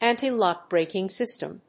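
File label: "Anti-lock braking system", English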